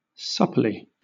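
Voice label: "supply", British English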